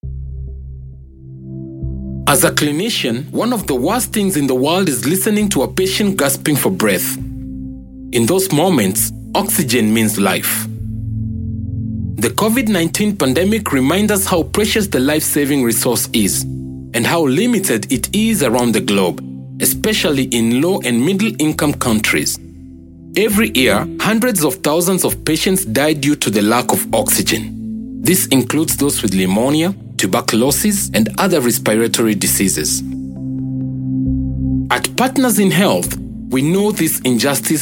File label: kenyan voiceover documentary